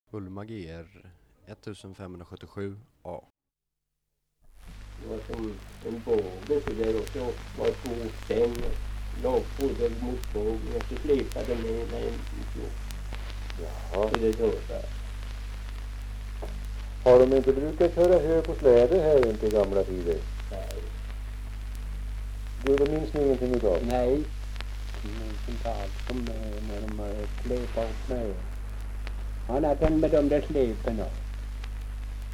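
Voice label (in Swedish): Lohärad Uppland, dialekter; Svenska sagor; Folkminnen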